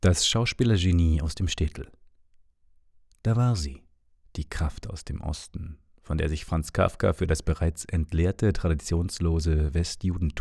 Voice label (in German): Voicesample Dokumentation Hörbuch; Alexander Granach